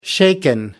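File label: "shaken", British English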